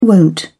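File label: "wont", British English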